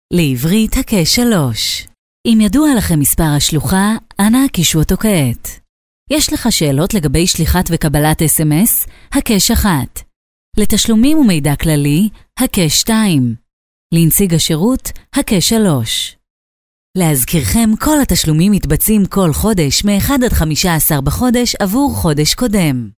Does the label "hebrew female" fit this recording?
Yes